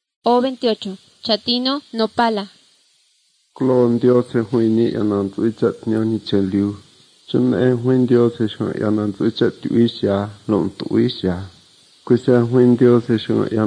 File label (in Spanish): spanish mexico